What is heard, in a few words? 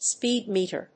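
"speed meter", English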